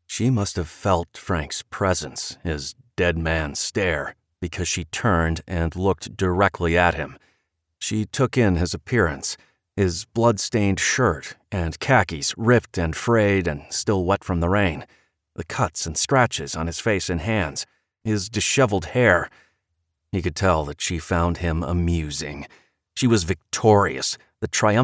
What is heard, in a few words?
Thriller M F